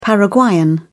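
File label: "paraguayan", British English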